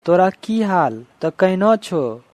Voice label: "Toharo ki haal", Angika language; How are you?